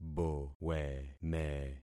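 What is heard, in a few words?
"boweme", concatenated syllables; Affixing experiment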